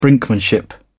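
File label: "brinkmanship", British English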